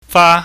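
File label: "fa", Cantonese, high falling tone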